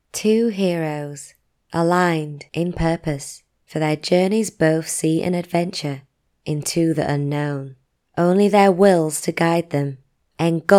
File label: ad; Mighty Sword